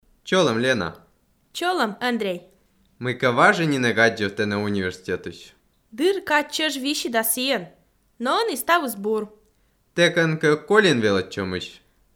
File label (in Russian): dialog